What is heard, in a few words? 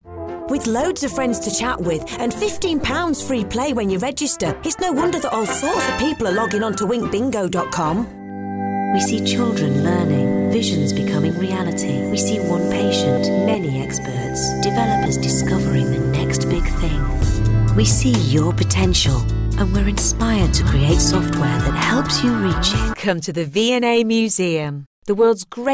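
British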